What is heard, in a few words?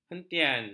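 "hentian", Malay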